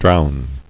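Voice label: "drown", English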